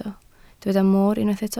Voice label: "moor", Welsh

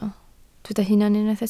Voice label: "hunan", Welsh

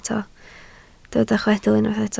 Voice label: "chwedl", Welsh

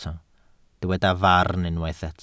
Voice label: "farn", welsh

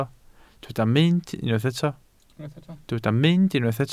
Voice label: "mynd", Welsh

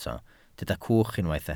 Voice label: "cwch", Welsh

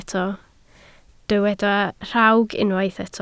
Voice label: "rhawg", Welsh

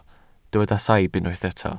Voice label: "saib", Welsh

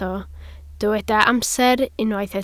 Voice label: "amser", Welsh

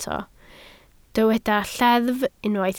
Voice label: "lleddf", Welsh

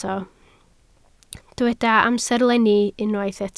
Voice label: "amserlenni", Welsh

